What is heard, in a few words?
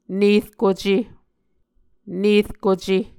"nerkuce", Muscogee